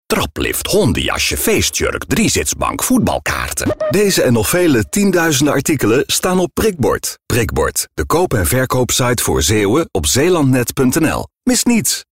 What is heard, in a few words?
radio commercial medium sell two voices